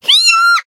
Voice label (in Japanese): Voice damaged jp; ilulu